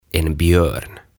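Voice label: "En björn", Swedish; Karhu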